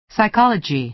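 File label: "psychology", American English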